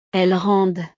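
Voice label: "elles rendent", French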